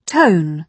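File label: "tone", British English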